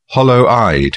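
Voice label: "hollow-eyed", British English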